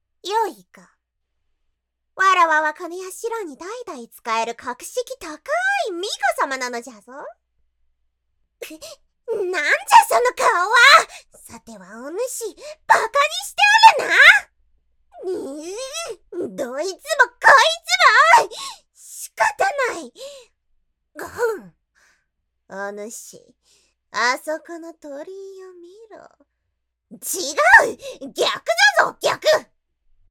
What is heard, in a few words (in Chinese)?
外籍日语女 角色 高傲萝莉